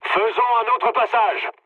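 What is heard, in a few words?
bomb failure, mood low, French; War Thunder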